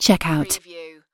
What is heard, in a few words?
"checkout", UK female; lynne publishing